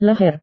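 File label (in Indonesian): "leher", Indonesian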